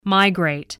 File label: "migrate", voice, spelling